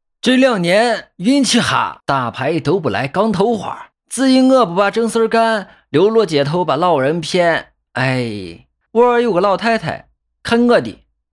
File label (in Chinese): 陕西话丑角